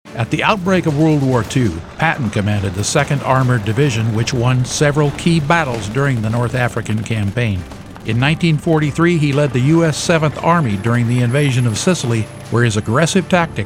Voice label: Narration